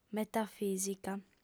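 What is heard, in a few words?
"metafìsica", female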